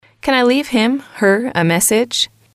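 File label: can I Leave Him Her A Message, english, female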